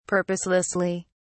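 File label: "purposelessly", British English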